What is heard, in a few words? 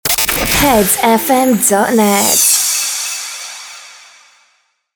Sweeper Xmas Short